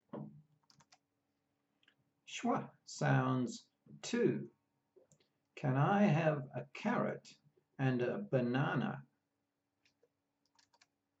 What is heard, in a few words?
Schwa sounds, pronunciation of australian english